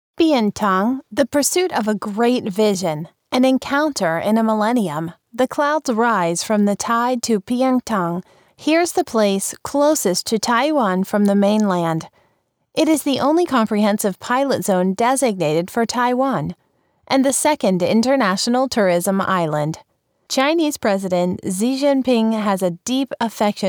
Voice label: 外籍英女, 城市宣传片配音; 逐梦平潭

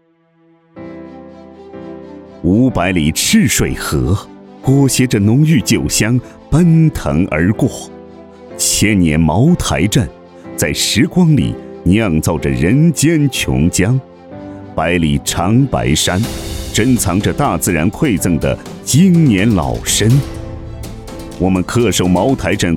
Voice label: 男 广告 大气有感情 新酒; 酒类广告案例; 茅台新酒

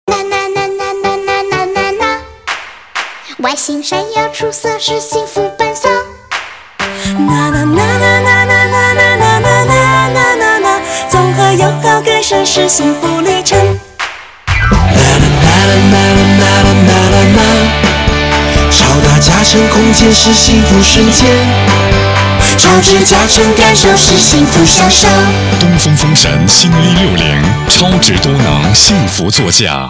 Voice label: 男; 东风风神

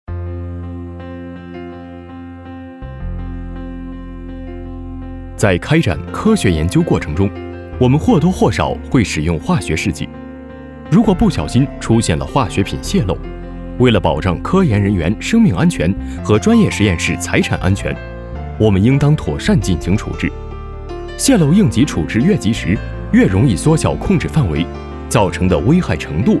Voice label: 男 介绍 实验室; 之江实验室

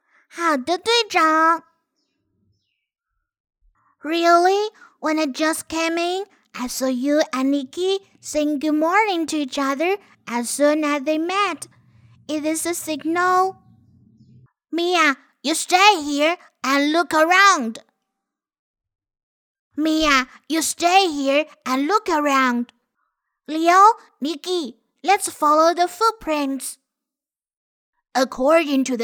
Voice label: 英女 中英文 童声

